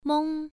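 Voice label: "meng", tone 1, pronunciation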